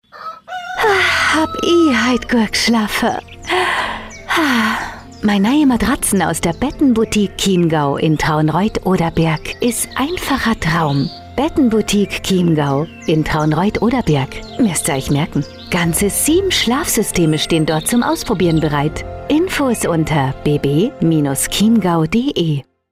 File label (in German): Bettenwerbung Bayerisch